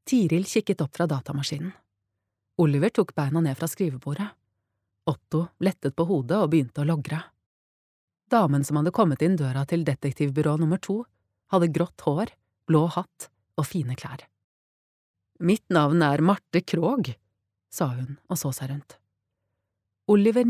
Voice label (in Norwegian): barnebok, Norwegian; female